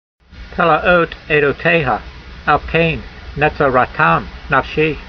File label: voice, hebrew language; Psalm 119:129; pela'ot aydoteyha, al-kayn ne'tsa'ra'tam nafshee